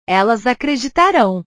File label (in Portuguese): "elas acreditarao", portugues